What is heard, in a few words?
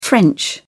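"french", British English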